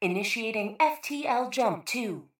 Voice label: PA System Initiating FTL